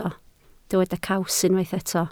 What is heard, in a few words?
"caws", Welsh